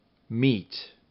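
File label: "meat", English; RhymeTest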